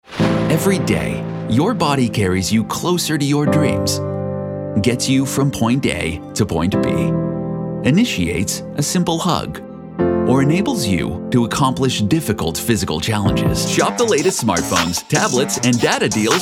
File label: commercial